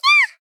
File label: Gentle, Surprised, "Kyaa"